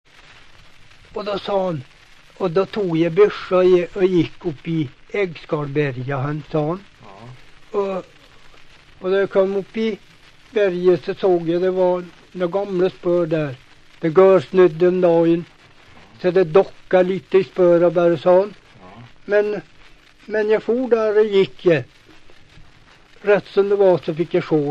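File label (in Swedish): Hede Härjedalen, svenska sagor dialekter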